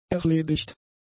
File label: "erledigt", German; adjectives